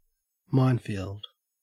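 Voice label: "minefield", Australian English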